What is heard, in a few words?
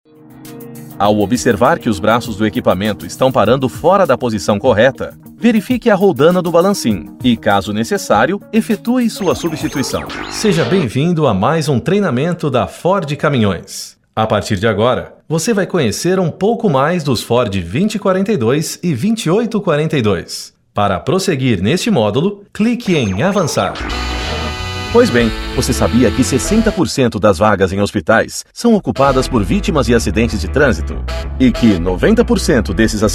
portuguese brazilian e-learning